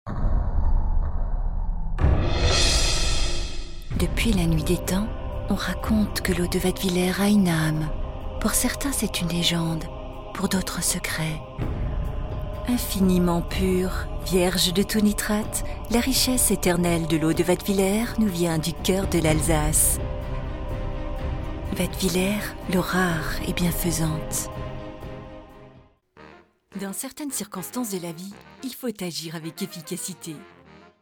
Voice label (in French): voix off